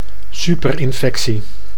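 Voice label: "superinfectie", Dutch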